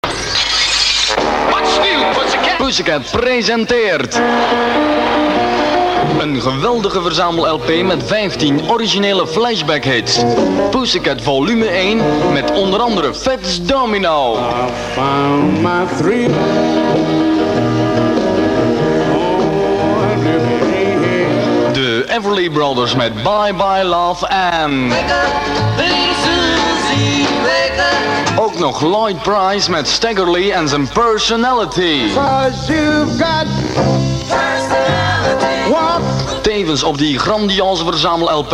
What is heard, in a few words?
commercial